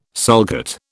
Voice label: "sulcate", British